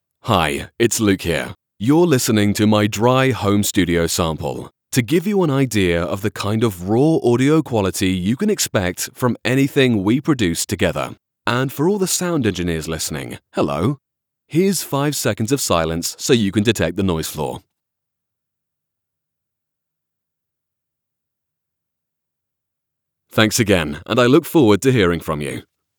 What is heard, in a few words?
Studio Sample